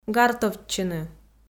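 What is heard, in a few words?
"гартовтчыны", Komi, female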